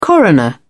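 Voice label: "coroner", British English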